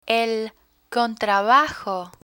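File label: "contrabajo", Spanish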